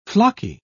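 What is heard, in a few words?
"flocky", British English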